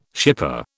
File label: "shipper", British